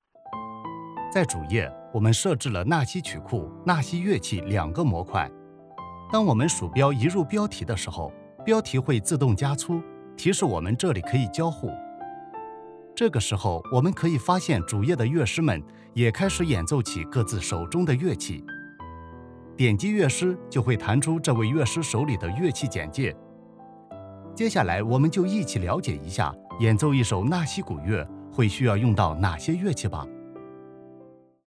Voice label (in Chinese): 解说网页项目操作